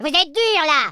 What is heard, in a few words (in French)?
what the, French speech